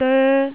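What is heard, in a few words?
"doe1", Cantonese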